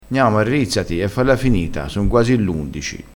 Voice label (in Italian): "arrizzassi", crespinese